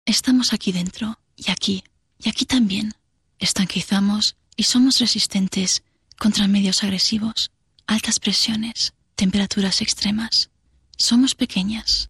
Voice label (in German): ESP Kommentar Sanft